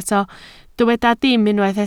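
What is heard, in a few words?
"dim" Welsh, spoken word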